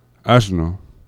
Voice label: "asno", fricatives; laboratori fonetica

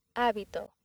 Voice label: "habito", accent; laboratori fonetica; practiques accent

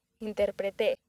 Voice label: "interprete", accent; laboratori fonetica; practiques accent

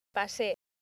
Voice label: "pase", accent; laboratori fonetica; practiques accent

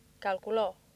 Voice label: "calculo", accent; laboratori fonetica; practiques accent

